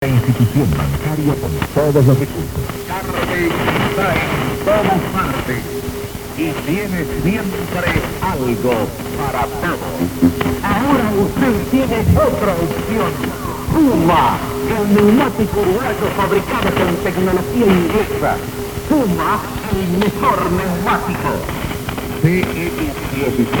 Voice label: Montevideo, shortwaves; R Carve